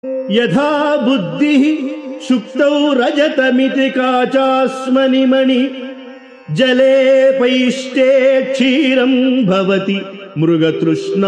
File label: Sanskrit; Sri Adi Sankaracharyas Sivananda Lahari; Adi Shankaracharya; श्रीशिवानन्दलहरी ०८